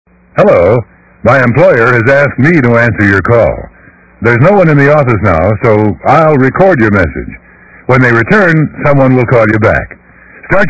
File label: male employee; RadioShack; Office-Home